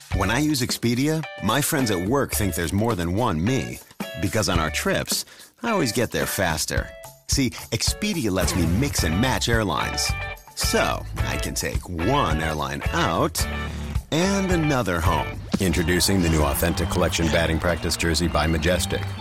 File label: Voiceover Commercial Men; New York; Commercial Demo